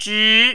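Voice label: "zhi2", mandarin, rising tone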